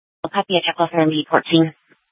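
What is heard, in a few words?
police dispatch, Scottsdale PD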